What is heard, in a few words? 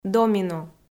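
"домино", Komi, female; kpv audio dict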